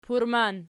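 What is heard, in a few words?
"purman" nominative, Archi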